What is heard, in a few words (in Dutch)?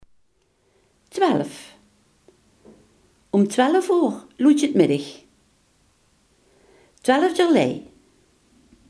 "twaalf", limburgse